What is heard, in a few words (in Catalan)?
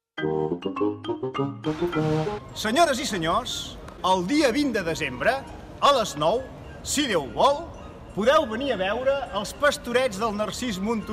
Pastorets Radio; Pastorets Monturiol